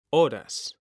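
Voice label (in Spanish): "horas", Spanish, male; mekanta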